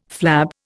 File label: "flab", Indian